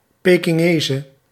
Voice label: "Pekingese", Nl